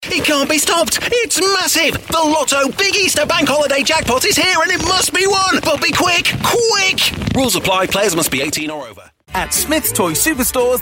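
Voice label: commercials, Friendly English Voice With Yorkshire Accent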